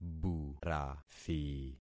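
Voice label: "burathi", concatenated syllables; Affixing experiment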